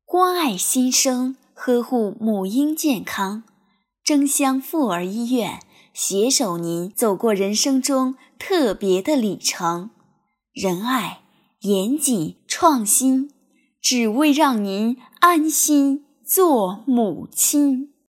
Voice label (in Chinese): 广告